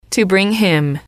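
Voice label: "to bring him", English, female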